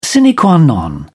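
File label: "sine qua non", British English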